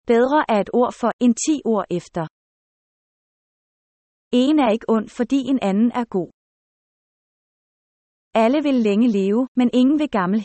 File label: danish proverbs